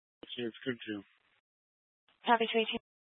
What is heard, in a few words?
Fire Dispatch